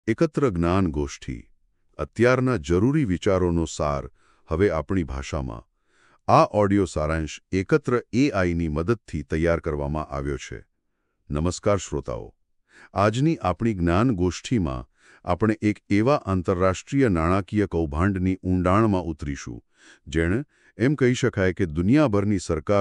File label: Audio gujarati; billion dollar whale